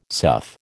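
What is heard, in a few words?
"sough", American English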